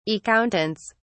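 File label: "accountants", British English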